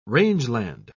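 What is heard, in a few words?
"rangeland", British English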